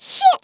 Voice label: "bummer", Dutch; Speech; International; 11khz

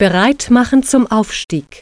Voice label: preparing Ascend, german

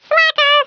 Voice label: "boring", American

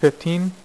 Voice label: "6", poker; iPoker 2000

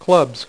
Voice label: "CLUBS", dealer's speech

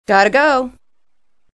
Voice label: Female Gotta Go; Survivor The Interactive Game; Player

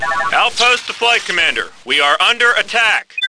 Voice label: Outpost, Computer Voice; X-COM

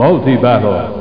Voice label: Multi Battle Voice; Crystal Caliburn

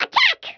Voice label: "fireball", American; Speech; International

